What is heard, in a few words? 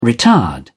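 "retard", English, British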